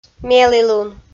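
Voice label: "мелілун", Komi, female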